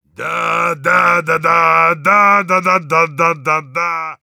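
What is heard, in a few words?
Heavy cheers, Russian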